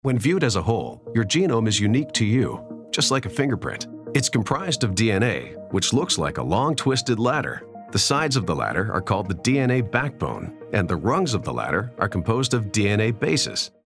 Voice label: male english canadian neutral north american accent dna genome corporate